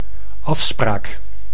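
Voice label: "afspraak", Dutch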